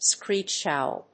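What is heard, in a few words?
"screech owl", English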